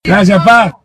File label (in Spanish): "gracias", tucumanos